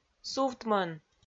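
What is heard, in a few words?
"сувтмӧн", Komi-Zyrian, female; audio dict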